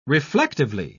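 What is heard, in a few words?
"reflectively", English, uk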